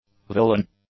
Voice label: "villain", English; nouns